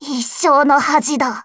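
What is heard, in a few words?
story, Japanese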